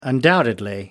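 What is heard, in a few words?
"undoubtedly", British English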